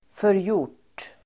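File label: "förgjort", Swedish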